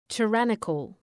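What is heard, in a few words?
"tyrannical", English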